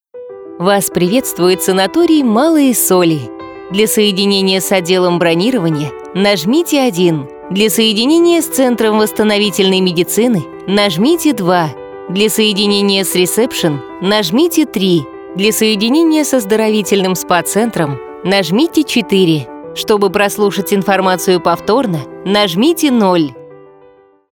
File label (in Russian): IVR; Малые соли